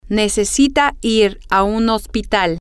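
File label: he she needs to go to a hospital, spanish, female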